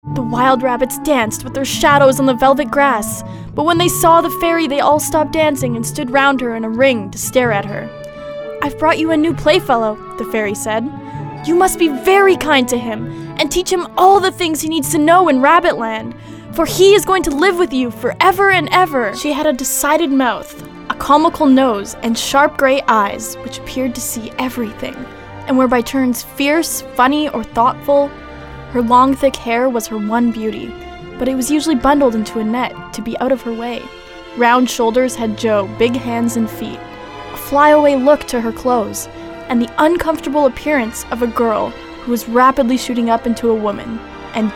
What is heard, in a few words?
Narration VO